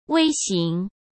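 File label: "微型", Mandarin Chinese; hsk; chinese-flashcards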